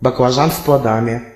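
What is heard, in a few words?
eggplant, Russian; plants; stamps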